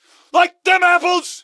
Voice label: like the apples, gasmask